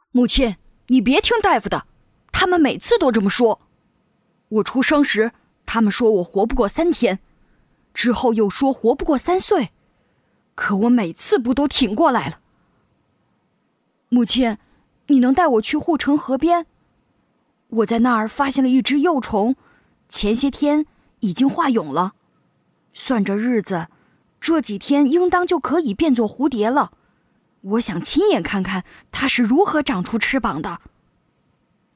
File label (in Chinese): 女 卡通角色 少年; 可爱